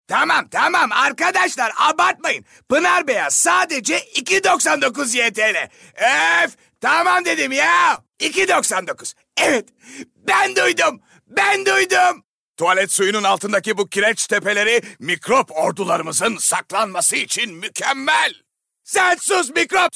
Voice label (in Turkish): Reklam